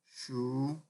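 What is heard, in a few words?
"shu", Nigerian Pidgin, male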